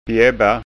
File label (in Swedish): "bjabba", skanska ord